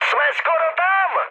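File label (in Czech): Czech near waypoint, mood medium; speech; War Thunder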